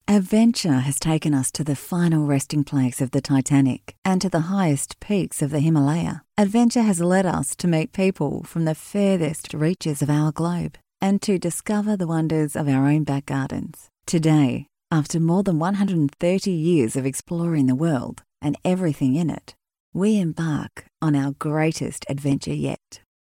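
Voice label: Australian English Documentary; GoLocalise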